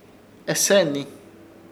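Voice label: "Eseeni", Chamacoco word, senal o desde alli; Ishir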